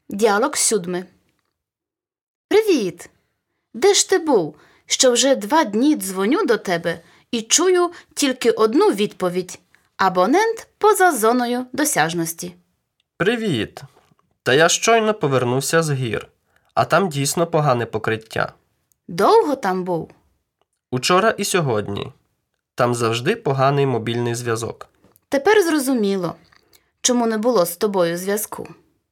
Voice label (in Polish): Lekcja Dialog